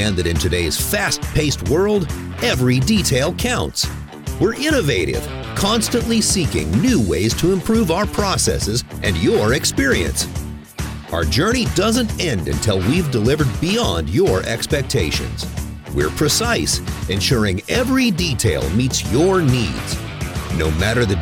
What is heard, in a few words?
Commercial With Music; 10-4 Global Logistics